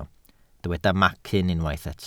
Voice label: "macyn", welsh